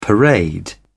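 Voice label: "parade", British English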